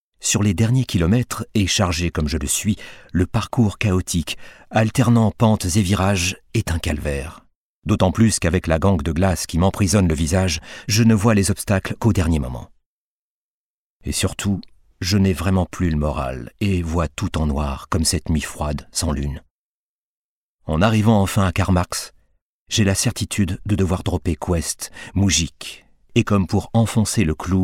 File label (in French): Livre audio; La Grande Course; Nicolas Vanier